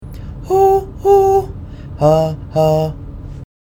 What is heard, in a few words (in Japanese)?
弱々しい